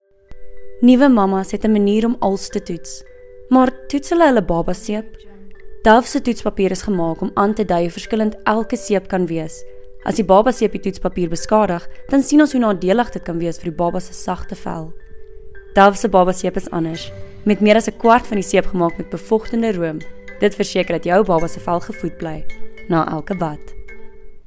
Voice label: Soft Sell Afrikaans

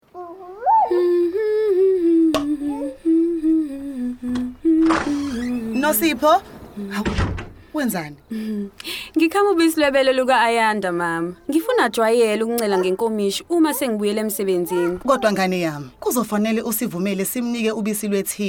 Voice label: PSA isiZulu; KIBS

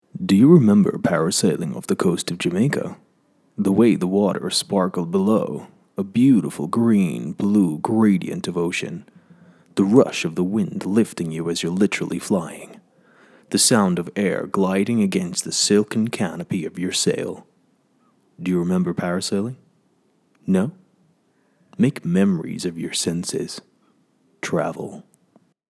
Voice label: American Travel Voice Over

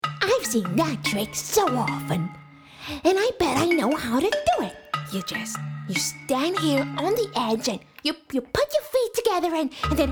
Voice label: Animation Voice Over

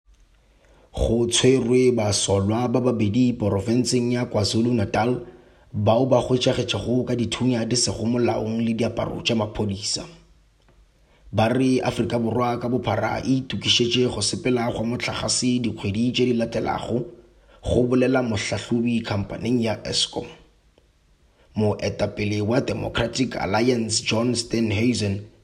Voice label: Sepedi News

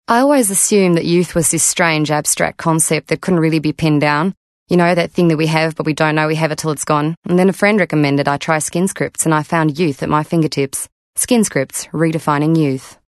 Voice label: Conversational Australasian Australian

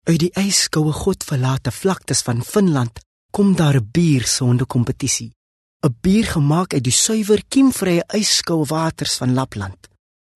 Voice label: Soft Sell South African Neutral

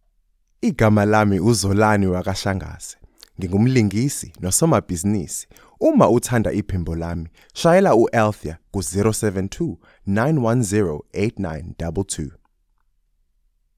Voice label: intro zulu

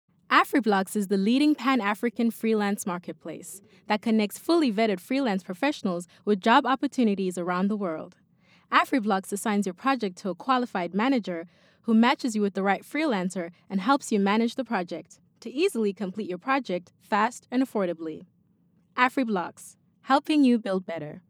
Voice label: voice over; Afri-Blocks

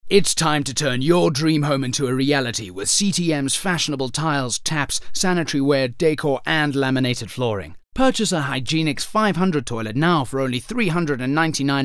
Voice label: VOICE OVERS; CTM

